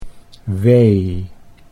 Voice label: "wee", Dutch